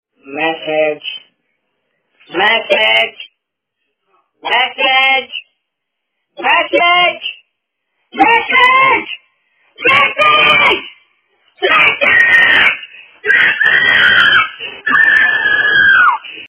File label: Funny Message Shout